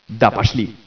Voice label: "fine", scientist